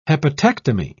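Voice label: "hepatectomy", British English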